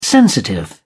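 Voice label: "sensitive", British English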